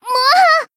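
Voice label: damaged, Japanese; tsukiha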